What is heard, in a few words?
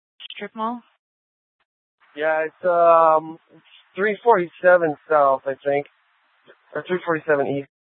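Police Department Dispatch, scanner; TOPAZ Regional Wireless Cooperative (TRWC) - Mesa Simulcast; Mesa PD; Fiesta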